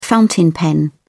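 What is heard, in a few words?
"fountain pen", English, British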